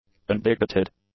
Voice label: "unbigoted", English; adjectives